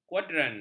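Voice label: "kuadran", Malay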